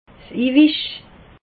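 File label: "iwisch", Alsatian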